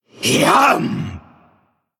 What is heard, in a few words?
Male Undead Attack